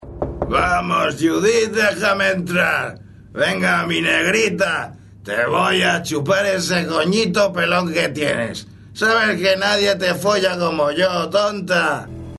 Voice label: elderly

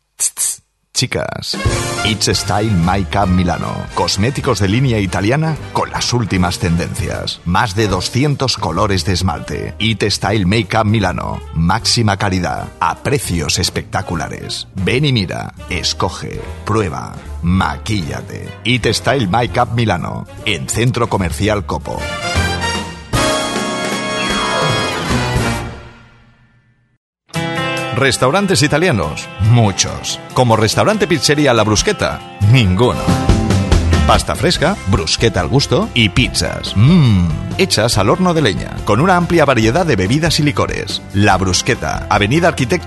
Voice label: commercial

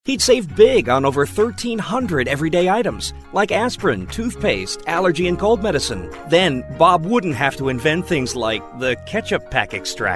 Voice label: American

